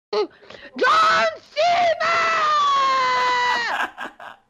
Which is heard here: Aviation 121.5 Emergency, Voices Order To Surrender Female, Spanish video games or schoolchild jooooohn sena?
schoolchild jooooohn sena